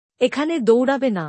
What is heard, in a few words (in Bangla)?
Don’t run here. Bangla; Time and Routine